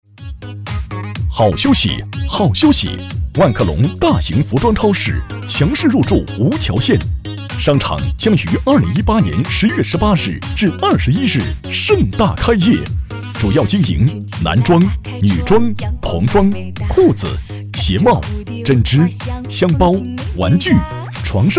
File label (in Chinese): 男 激情促销; 万客隆服装超市